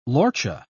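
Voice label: "lorcha", British English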